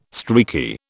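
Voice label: "streaky", British English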